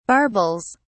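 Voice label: "barbels", British English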